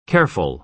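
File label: "careful" American English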